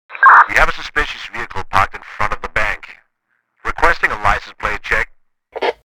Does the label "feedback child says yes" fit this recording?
No